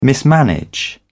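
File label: "mismanage", British English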